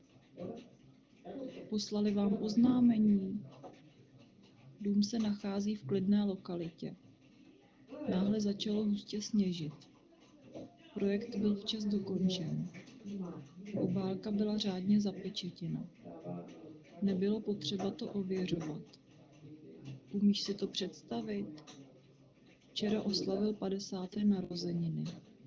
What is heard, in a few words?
Office Noise Czech Female Hands-free; AMR-WB; 150ms Delay - 10ms Jitter - 1% Loss